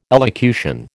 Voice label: "elocution", American

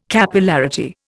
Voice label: "Capillarity", Indian

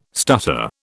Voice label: "stutter", British

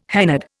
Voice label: "hennaed", Indian

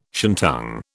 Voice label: "shantung", British

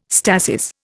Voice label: "stasis", Indian

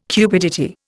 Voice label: "cupidity", Indian

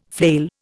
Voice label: "flail", Indian

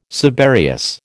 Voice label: "subereous", American

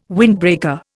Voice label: "windbreaker", Indian

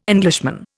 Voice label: "Englishman", Indian

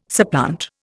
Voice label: "supplant", Indian

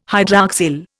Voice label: "hydroxyl", Indian

